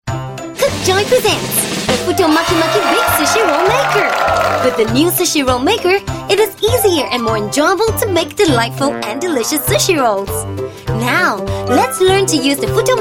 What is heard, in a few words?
Asian English instruction